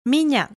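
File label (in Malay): "minyak", Malay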